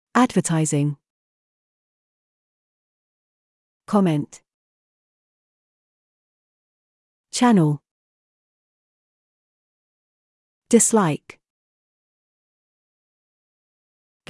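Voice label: British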